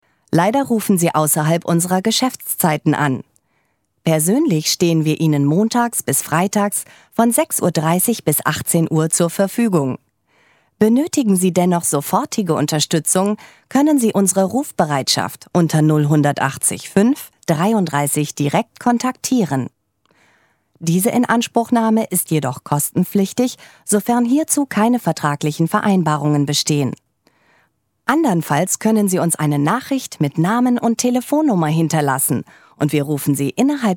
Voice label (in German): deutsch, Telefonansagen, weiblich